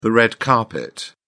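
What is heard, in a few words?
"red carpet", British English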